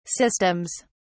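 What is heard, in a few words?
"systems", British English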